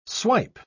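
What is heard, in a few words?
"swipe", spoken word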